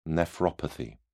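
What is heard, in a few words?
"nephropathy", British English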